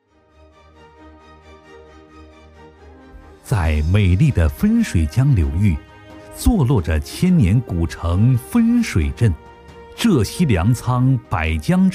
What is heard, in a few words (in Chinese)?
男 专题 乡村振兴